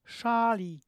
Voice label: voiceless flat postalveolar initial, polish